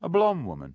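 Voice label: a blonD woman, Standard Southern British; D-T-DELETION; a blonde woman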